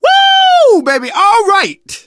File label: hurrah, survivor voice; source engine; left 4 dead 2; manager